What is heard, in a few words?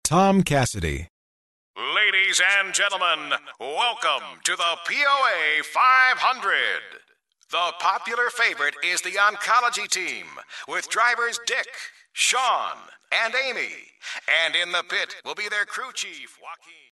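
sports announcer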